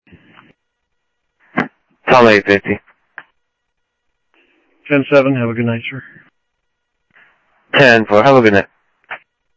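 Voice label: Sheriff Lake Patrol, scanner audio trunk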